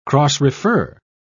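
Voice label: "cross-refer", British English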